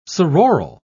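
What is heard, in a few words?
"sororal", British English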